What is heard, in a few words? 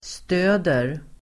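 "stöder", Swedish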